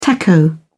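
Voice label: "taco", British English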